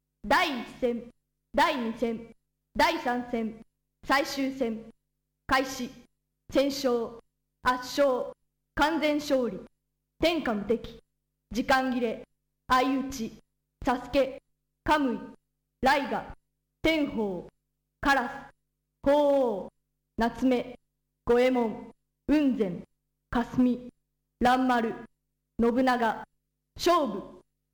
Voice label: Narration; Ninja Master's Haou Ninpou Chou